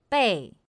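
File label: "bèi", Mandarin Chinese; pinyin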